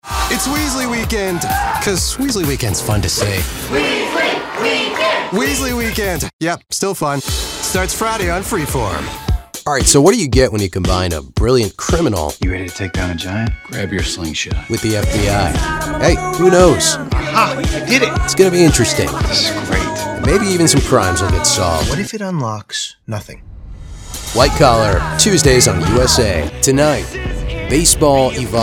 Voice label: promo men

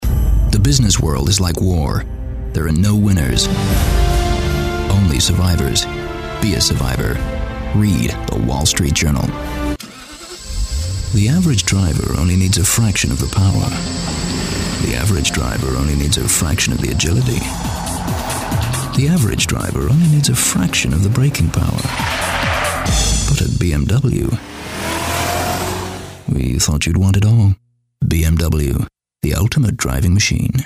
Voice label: commercial, men

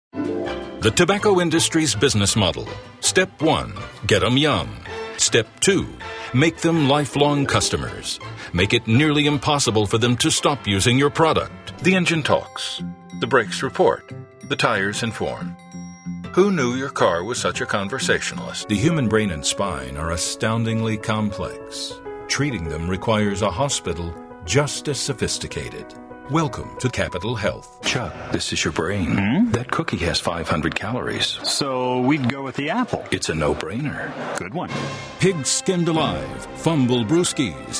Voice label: commercial, men

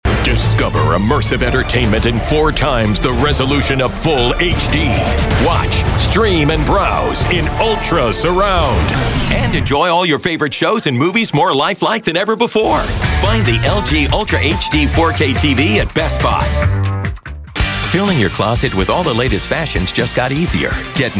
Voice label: commercial male

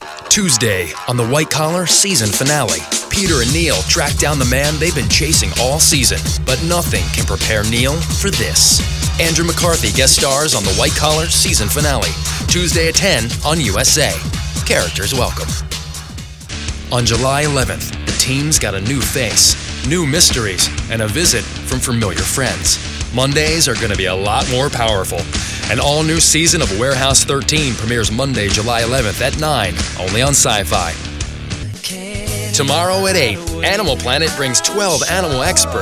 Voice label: promo, men

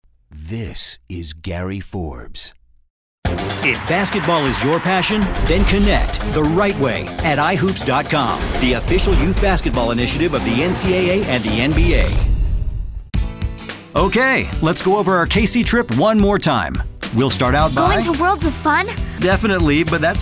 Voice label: commercial, men

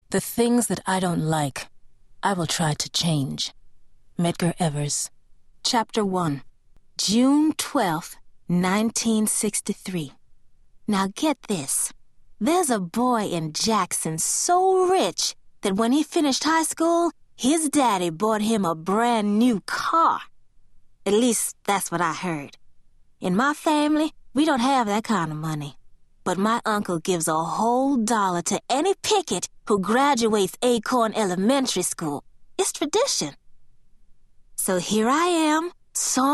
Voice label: audiobook

